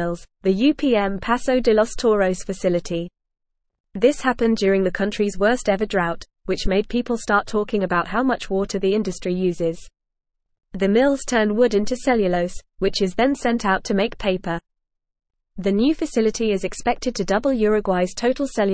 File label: English Upper Intermediate FAST Reading